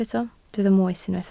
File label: "moes", Welsh